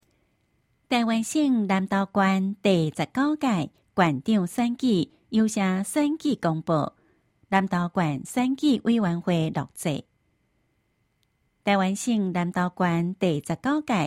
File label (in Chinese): 前言, 台語; 南投縣; 有聲公報; 縣長